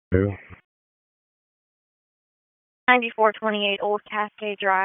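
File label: Dispatch 1, P25; WSO DISP 1; wake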